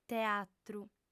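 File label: "teatru", lingua sarda, female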